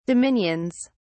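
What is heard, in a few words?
"dominions", British English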